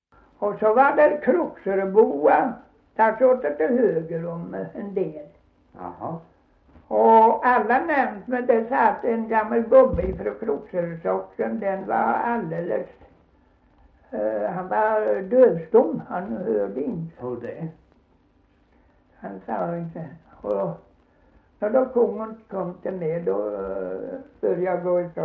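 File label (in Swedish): Gunnarp Halland, dialekter svenska sagor; Folkminnen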